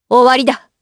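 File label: Vox Skill, voices, Japanese; Chrisha; kingsraid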